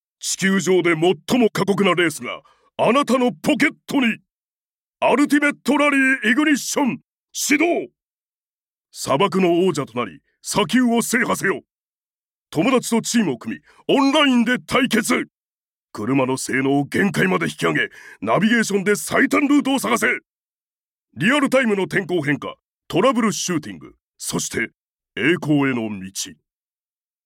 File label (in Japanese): ナレーション パワフル WEB 広告